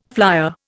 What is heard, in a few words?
"flyer", Indian